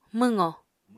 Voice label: "mwqo", Apatani